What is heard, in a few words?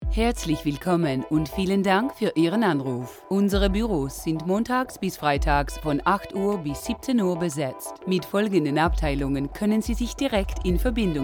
German